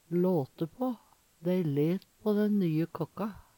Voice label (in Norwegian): late pa, Numedalsmål; kritisere, baksnakke; Uttrykk